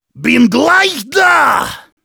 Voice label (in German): Heavy taunts, de